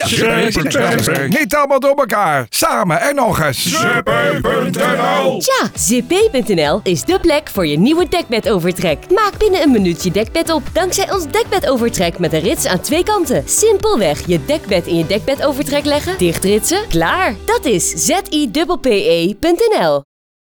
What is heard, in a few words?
Radiocommercial